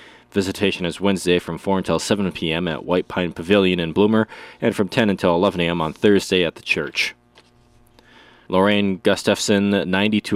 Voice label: Funeral Announcements